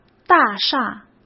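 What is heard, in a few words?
"大厦", Mandarin Chinese; hsk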